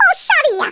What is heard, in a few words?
LEAVE ME ALONE, Speech Italian; Worms Armageddon